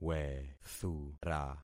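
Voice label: "wethura", concatenated syllables; Affixing experiment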